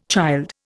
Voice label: "child", Indian

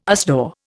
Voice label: "USDAW", Indian

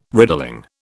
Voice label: "riddling", British

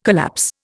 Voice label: "collapse", Indian

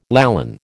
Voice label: "Lallan", American

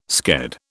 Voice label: "sked", British

